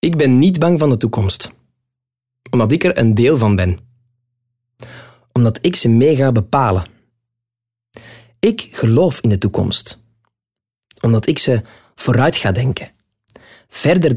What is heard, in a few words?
Toekomst Narration